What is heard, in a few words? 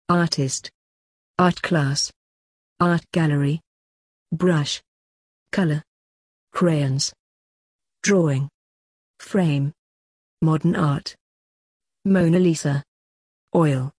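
British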